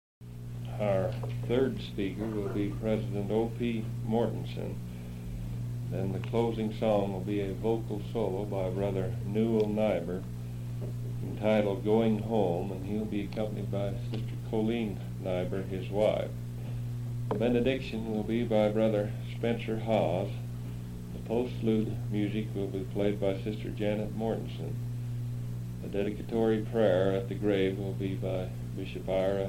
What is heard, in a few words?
Announcement